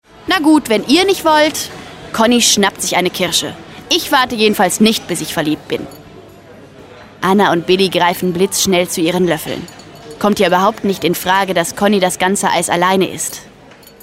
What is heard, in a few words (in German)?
Hoerbuch; Conni Und Co